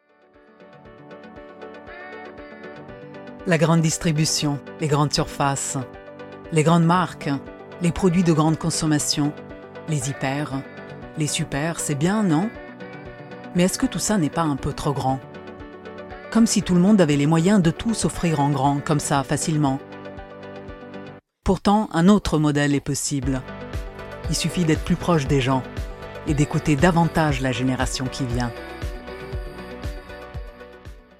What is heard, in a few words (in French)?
Pub en français; ALDI